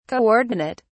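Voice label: "co-ordinate", English, British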